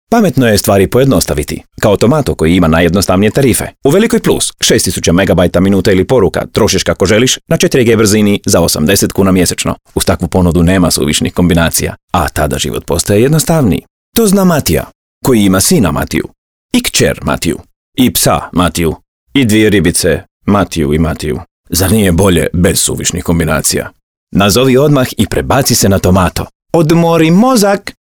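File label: croatian male commercial; voice demos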